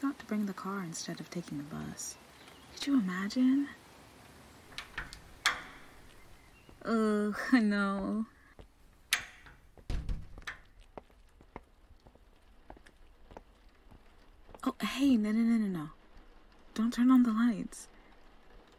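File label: [F4A] [Friends to Lovers][Library][Rainy night][Rain SFX], female; Best Decision I Ever Made; [Breaking In]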